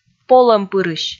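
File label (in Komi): "полӧмпырысь", Komi, female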